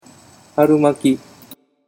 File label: "harumaki", Japanese